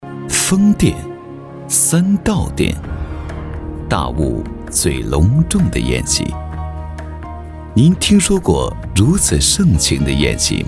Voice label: 男 纪录片 宴席; 舌尖

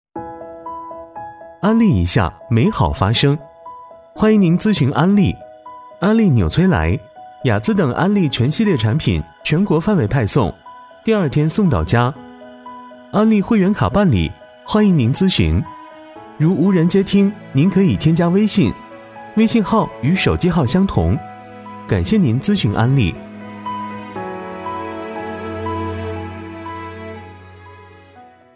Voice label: 男-彩铃; 安利纽崔莱

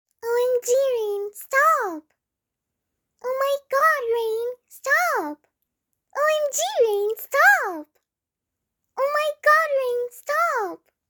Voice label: 外籍女声 萝莉音

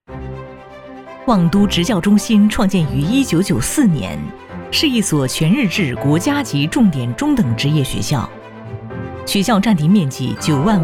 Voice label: 女 专题 欢快 学校宣传

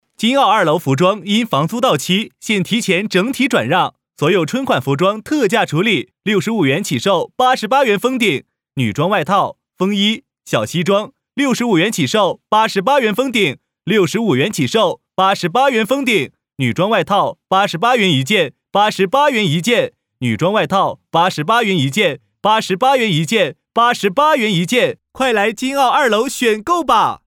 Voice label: 男 促销干音 服装; 金澳服装

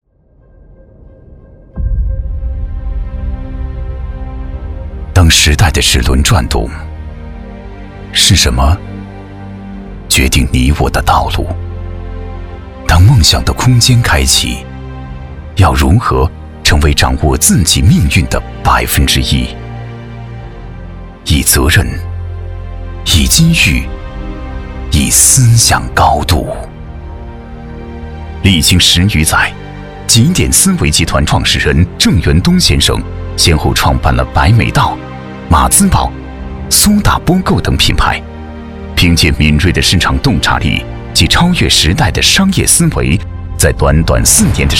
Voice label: 男, 宣传片, 深沉大气

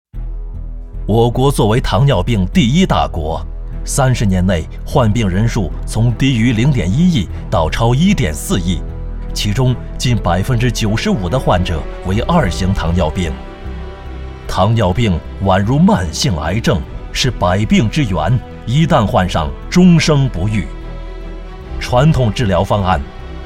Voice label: 男 医疗广告; 糖尿病

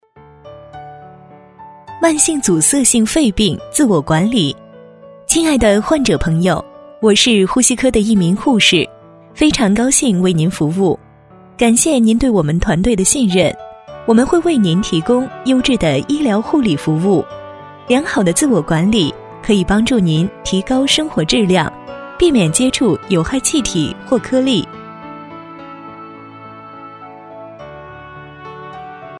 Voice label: 女 温馨介绍 专题

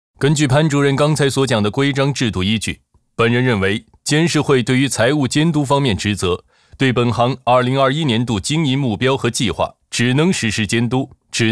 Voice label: 男 角色; 张监事

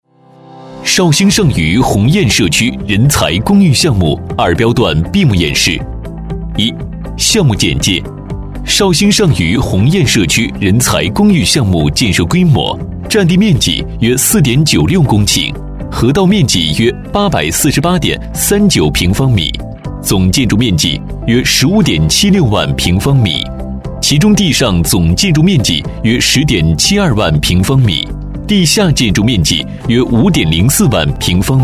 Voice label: 男 工程多媒体; 钱一上虞

